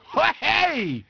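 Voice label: male wow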